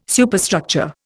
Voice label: "Superstructure", Indian